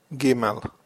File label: "gimel", hebrew